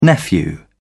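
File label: "nephew", British English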